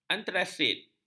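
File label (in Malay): "antrasit", Malay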